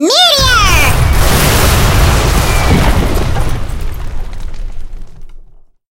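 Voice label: skill meteor en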